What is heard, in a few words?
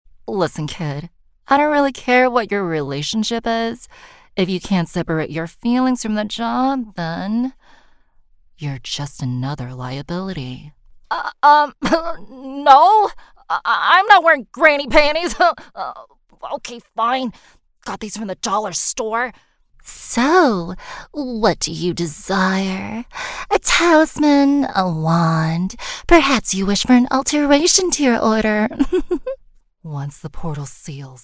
Character